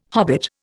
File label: "hobbit", Indian